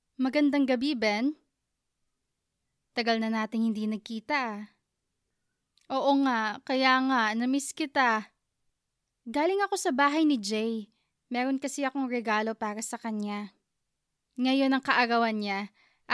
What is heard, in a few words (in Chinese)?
菲律宾语女 外语 小语种